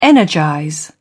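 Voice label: "energize", British English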